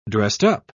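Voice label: "dressed-up", British English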